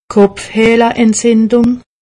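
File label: "kopfehleentzindung", Alsatian; medecine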